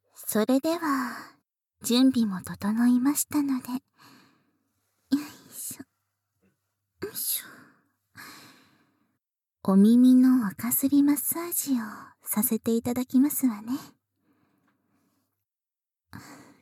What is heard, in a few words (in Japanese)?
耳垢すりマッサージ（囁き重視編集 効果音あり）, ASMR